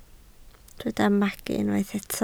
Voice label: "magu", Welsh

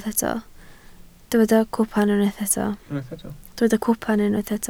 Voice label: "cwpan", Welsh

